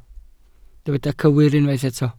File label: "cywir", Welsh